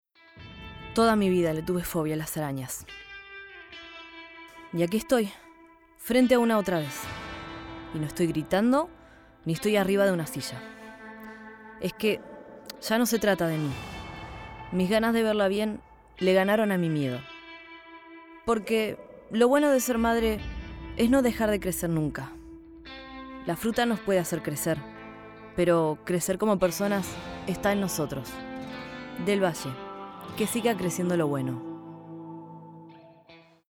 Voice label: female Argentinian tv comercial; ideasonora